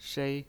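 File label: "shei", Mandarin Chinese pinyin, first tone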